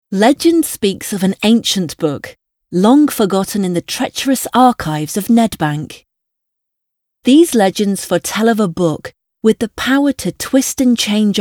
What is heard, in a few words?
Audio books; England